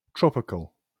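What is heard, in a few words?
"tropical", British English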